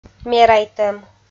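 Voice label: "мерайтӧм" Komi, female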